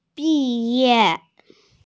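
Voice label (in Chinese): "bi4ye4", Mandarin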